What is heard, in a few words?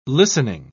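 "listening", British English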